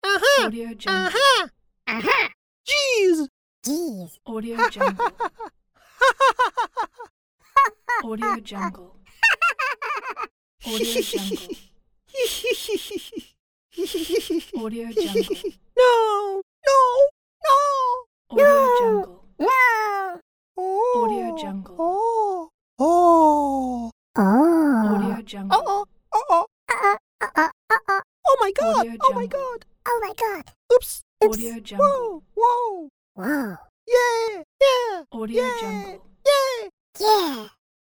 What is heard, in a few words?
childish exclamations interjections pack